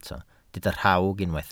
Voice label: "rhawg", Welsh